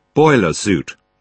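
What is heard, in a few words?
"boiler-suit", British English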